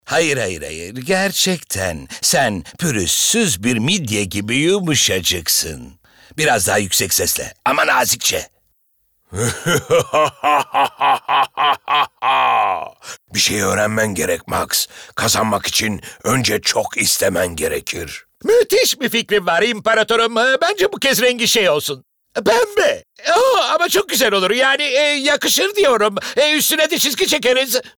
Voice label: animasyon

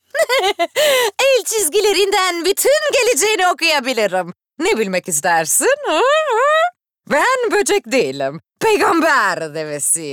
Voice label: Animasyon

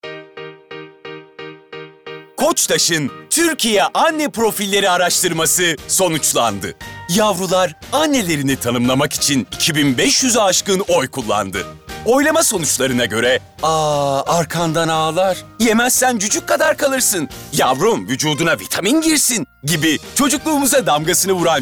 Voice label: Komik

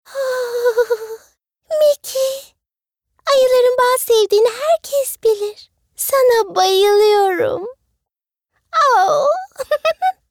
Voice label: Animasyon

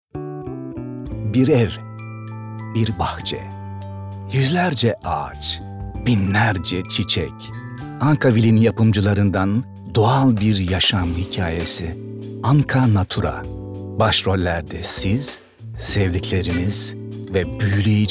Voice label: Romantik

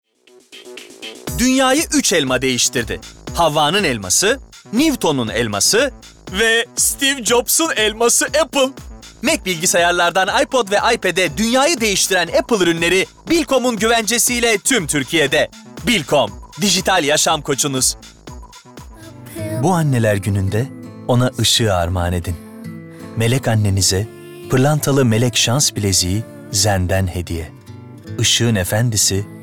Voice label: REKLAM, tr

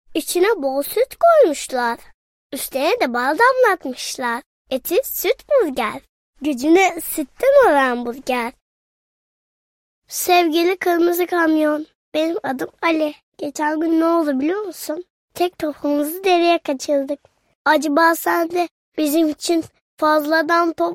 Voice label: Reklam

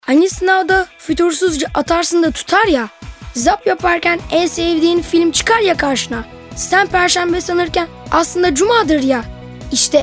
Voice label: Reklam

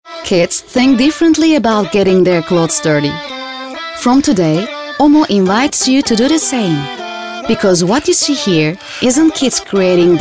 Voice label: INGILIZCE

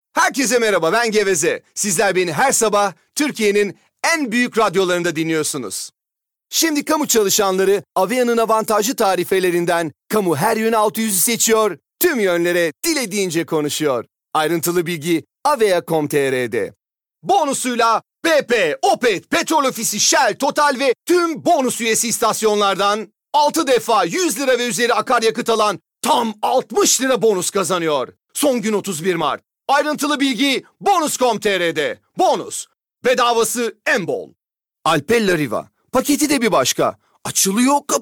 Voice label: REKLAM

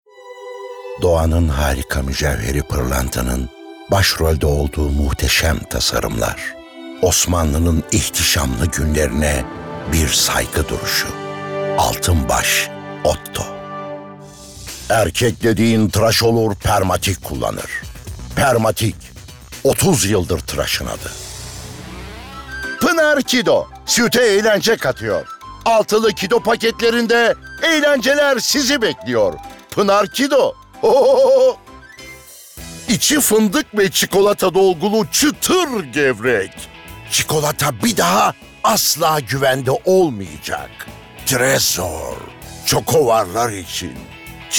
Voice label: REKLAM

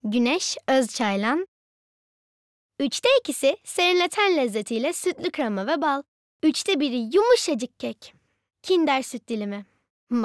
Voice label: Reklam

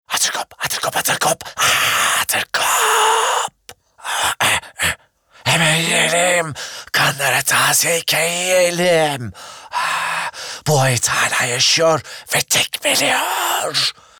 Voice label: ANIMASYON

